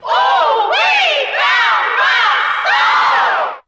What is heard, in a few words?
"ooh wee sound of soul", voice; ooh ahh